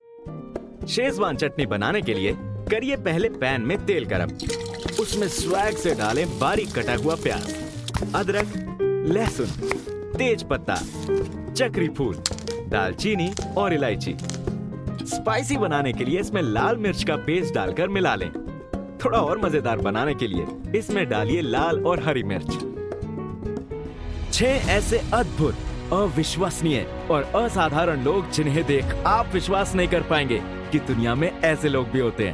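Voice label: Hindi Narration; voice demos; GoLocalise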